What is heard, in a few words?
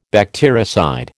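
"bactericide", American English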